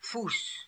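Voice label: "Foos", pronunciations; Fourth Way; Beelzebub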